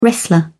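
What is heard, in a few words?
"wrestler", English, British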